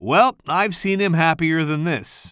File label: commentator